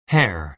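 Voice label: "hair", American English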